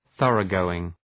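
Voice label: "thoroughgoing", English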